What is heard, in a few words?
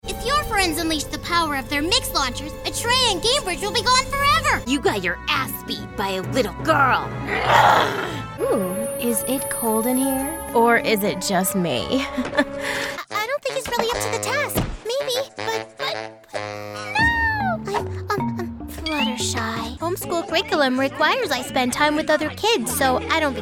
Animation